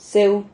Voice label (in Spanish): "pseu", Silabas